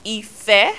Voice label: "ife", Yoruba; CONSONANTS; funfun-fila-ife

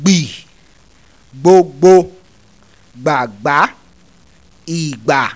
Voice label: "gb gbgbgb", Yoruba; CONSONANTS; gbogbo-gbagba-igba

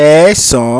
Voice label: "EESAN-AN", Yoruba

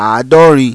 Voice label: "Aadorin", Yoruba

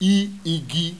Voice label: "IGI", Yoruba; ALPHABET RHYME

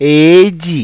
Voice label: "Eeji", Yoruba

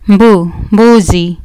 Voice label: "mbu" "mbuzi", Kiswahili

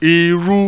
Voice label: "iru", Yoruba, mid mid tone; Nine Possible Combinations; Initial Mid tone combinations

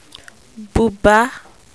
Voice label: "Buba", Yoruba

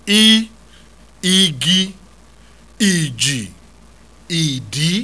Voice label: "i iii", Yoruba, oral vowels; igi-iji-idi

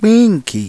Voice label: "PINNKI", Yoruba, Pink

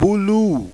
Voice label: "BULUU", Yoruba; Blue

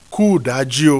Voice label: Kuudaji o, Yoruba

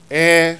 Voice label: "EH", Yoruba; ALPHABET

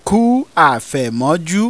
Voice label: Ku afemoju, Yoruba

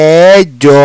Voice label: "EEJO", Yoruba

